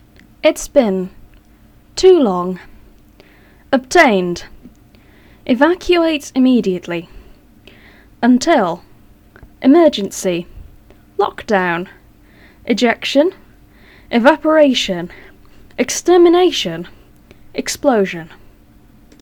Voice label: announcements